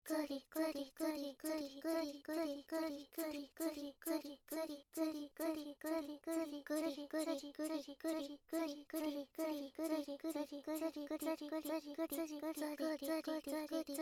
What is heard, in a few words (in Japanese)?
ずりずりぐりぐりボイス, 汎用エロボイス; おまけ音声（汎用指示ボイス・汎用エロボイス）